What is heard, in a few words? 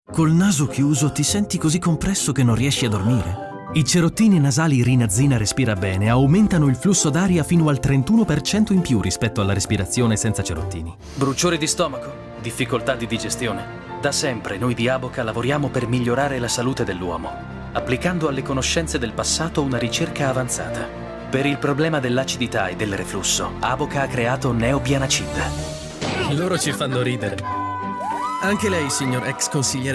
ITALIAN VOICES Reassuring Warm, male voices